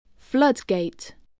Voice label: "floodgate", spelling